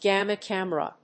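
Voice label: "gamma camera", English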